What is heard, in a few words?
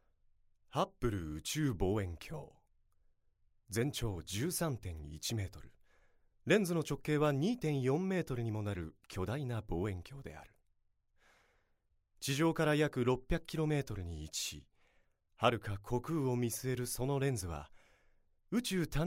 narration